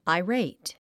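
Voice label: "irate", English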